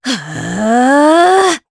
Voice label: Casting, jp; Aselica; kingsraid

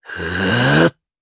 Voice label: Vox Casting, jp; Esker; kingsraid

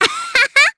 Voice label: Happy, jp; Cecilia; kingsraid

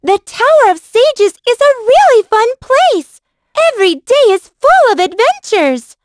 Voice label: vox, en; kingsraid

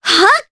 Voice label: Casting, jp; Ophelia; kingsraid

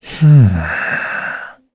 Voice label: Thinking, kr; Esker; kingsraid

